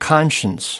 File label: "conscience", us